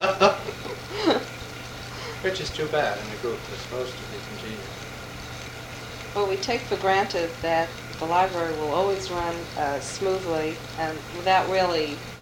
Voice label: Oral history with 64 year old white male Concord Massachusetts; american english dialect